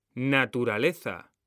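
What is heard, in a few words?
"naturaleza", Spanish, male; mekanta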